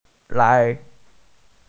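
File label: "lai2" Chinese, female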